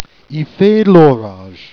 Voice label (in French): "orage", Jèrriais